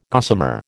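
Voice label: "gossamer", American English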